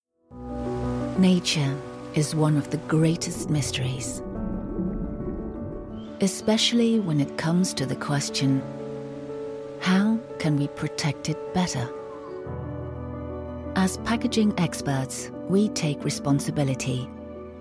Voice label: Produktfilm eng; rebo by tianshi

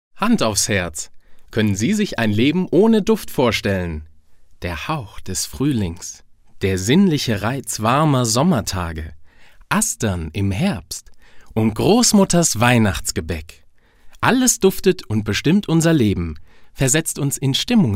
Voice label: werbung

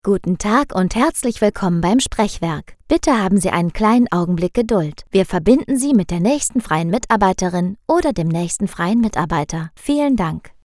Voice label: telefonansage warteschleife

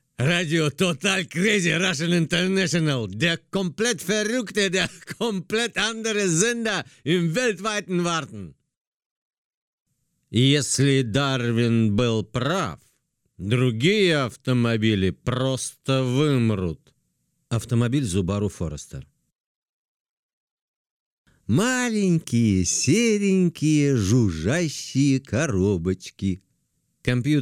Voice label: werbung

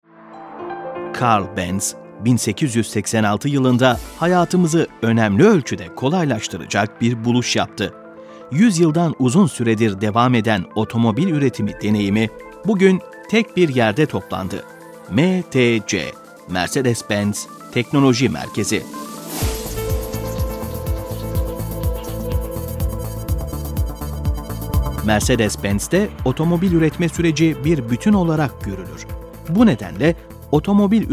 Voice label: imagefilm tuerkisch; mercedes-benz celikkafa

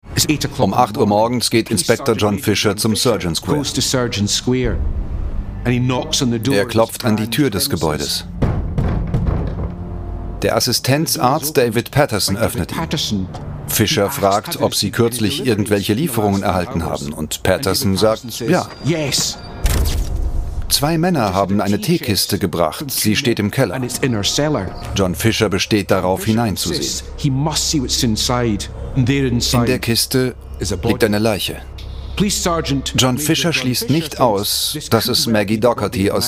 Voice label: Doku; murderoushistory

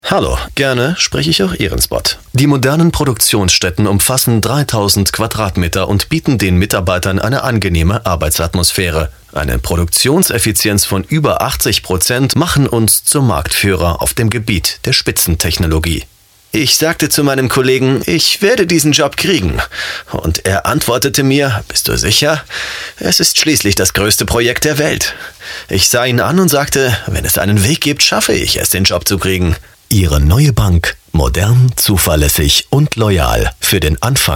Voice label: sprachdemo neutral

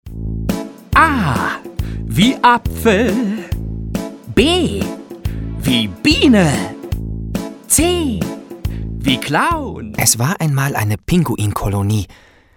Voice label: kinder

